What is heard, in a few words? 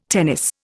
"tennis", Indian